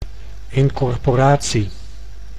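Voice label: "incorporatie", Dutch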